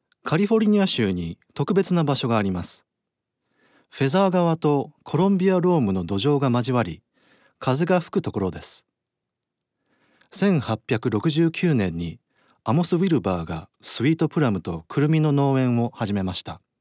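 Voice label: Japanese video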